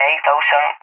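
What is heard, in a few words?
"800", English; Numbers; DCS; Allied Flight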